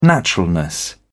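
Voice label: "naturalness", British English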